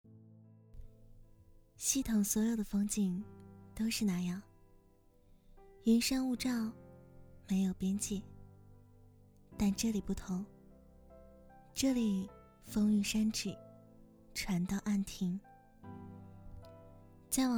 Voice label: 女 角色类 少女自述

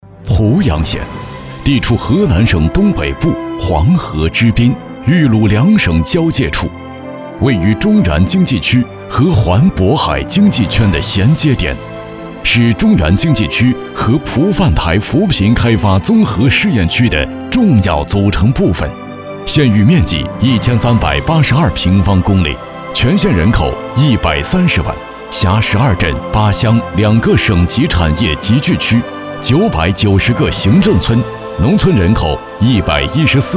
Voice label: 男, 专题; 濮阳脱贫

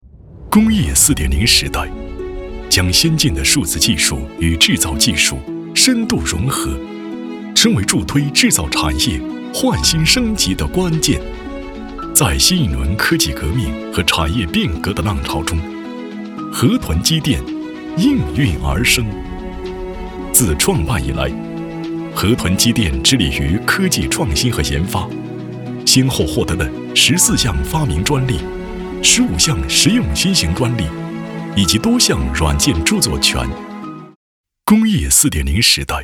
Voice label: 男 科技感专题; 工业4.0时代

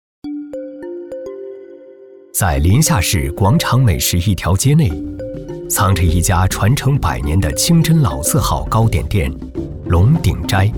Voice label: 男 舌尖风格; 陇鼎斋

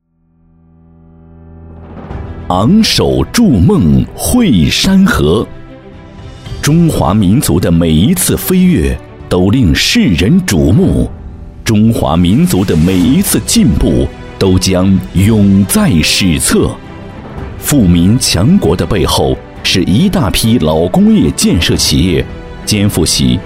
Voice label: 男 建筑多媒体; 首钢建设集团

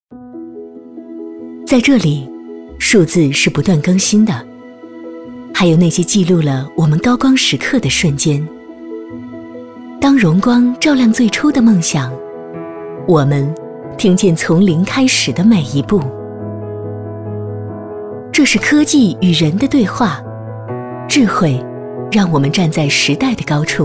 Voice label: 女, 专题, 走心自然科技; 听见写进未来的每一个声音

